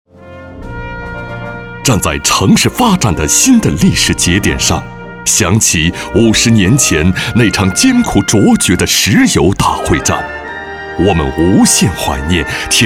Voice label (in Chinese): 男, 专题; 大庆精神